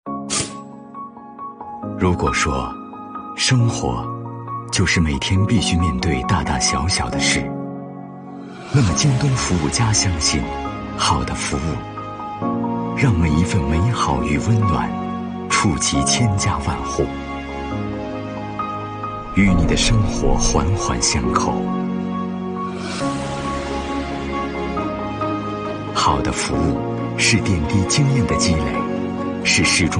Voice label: 男 京东服务

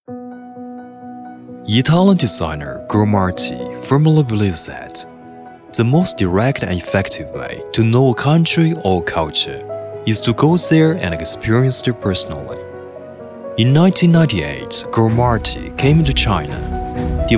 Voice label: 英语商业广告 磁性 家具, 男

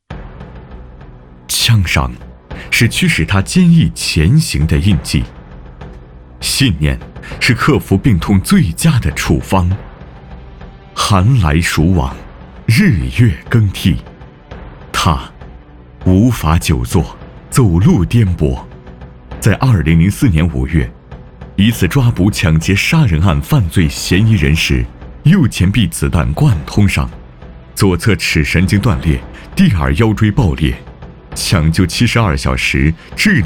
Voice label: B男 专题 枪伤

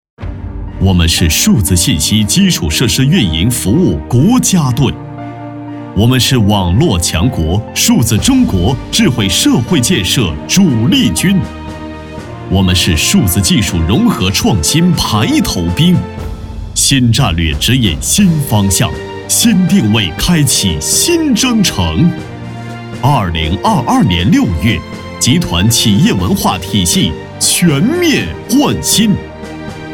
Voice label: 男 宣传片; 中国联通

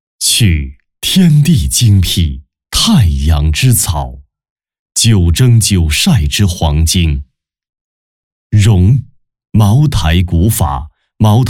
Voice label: 男 广告 酒

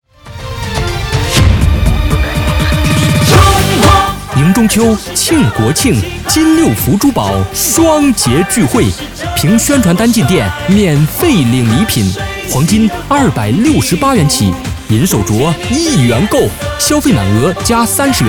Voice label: 促销, 男; 金六福

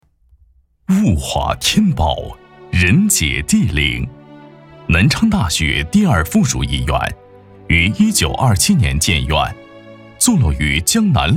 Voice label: B男, 宣传片, 大气, 医院

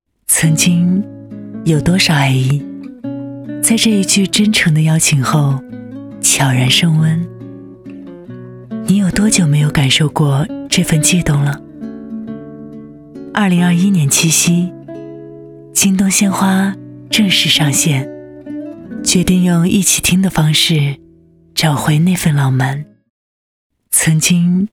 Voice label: 女 走心旁白 浪漫

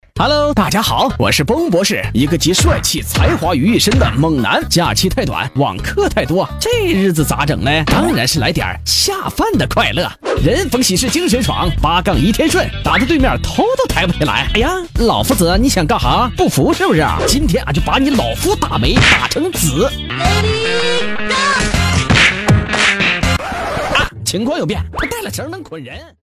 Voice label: 男, 短视频滑稽 游戏解说; 腾讯一招即浪

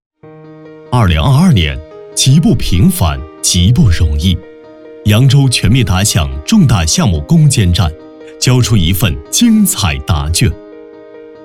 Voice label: 男 专题片城市宣传片 扬州

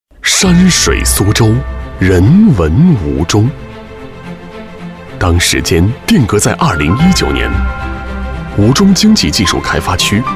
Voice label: 男, 宣传片; 《吴中经济技术开发区》

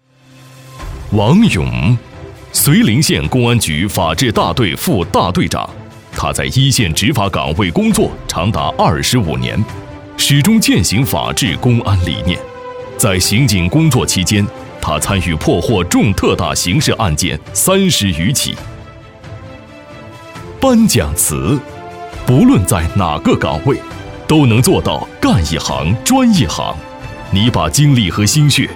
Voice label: 男 晚会颁奖

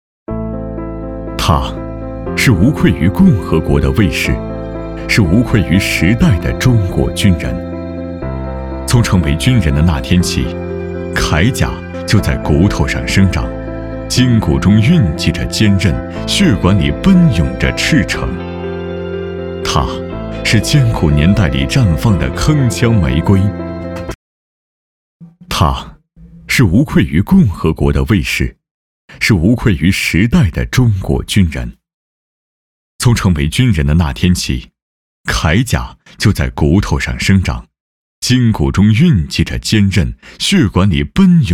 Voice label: B男 宣传片

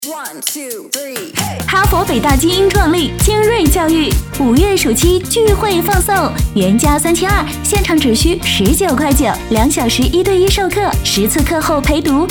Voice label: 女 宣传片 教育; 精锐教育

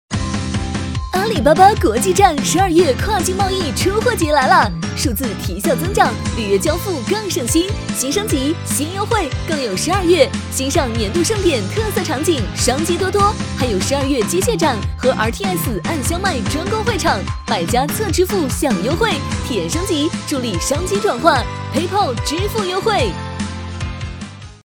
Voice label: 女 宣传片 活泼; 阿里巴巴国际站

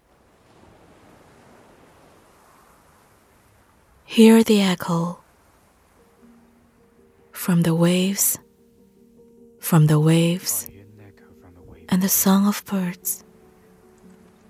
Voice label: 女 英语 高级感 高端服饰

